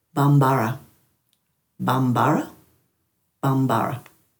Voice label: "bambara", Dhurga; venereal